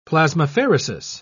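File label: "plasmapheresis", British English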